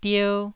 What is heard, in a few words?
"dier1", Taiwanese